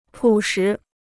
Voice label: "朴实", Chinese

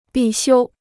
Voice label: "必修", Chinese

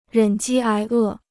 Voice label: "忍饥挨饿", Chinese

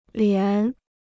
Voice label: "连", Chinese

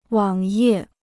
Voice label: "网页", Chinese

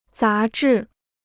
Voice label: "杂志", Chinese

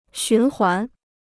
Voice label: "循环", Chinese

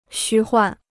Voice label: "虚幻", Chinese

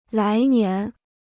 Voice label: "来年", Chinese

